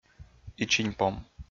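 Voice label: "ичиньпом", Komi-Zyrian, male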